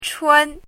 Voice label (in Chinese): "chun1", 汉字语音